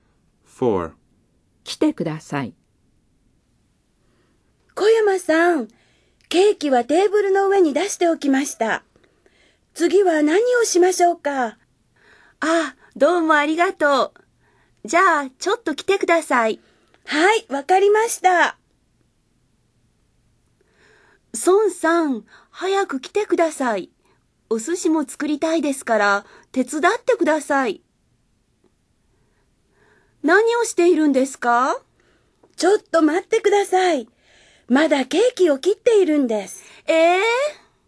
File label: Dialog